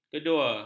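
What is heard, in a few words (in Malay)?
"kedua", Malay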